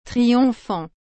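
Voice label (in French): "triomphante", French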